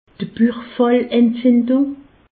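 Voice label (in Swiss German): "buchfallentzindung", Alsatian; medecine; péritonite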